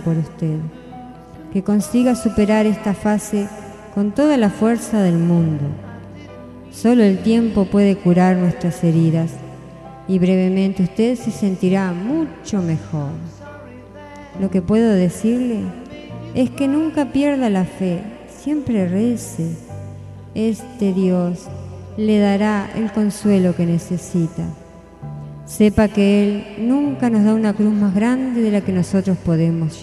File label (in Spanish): MOMENTO DOLOROSO F, Espanhol; Fem; Variadas em Espanhol